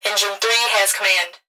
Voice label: Emergency Human Female Voice Fire Dispatch Engine Three Has Command; emergency effects